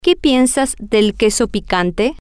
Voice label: que piensas del queso picante, Spanish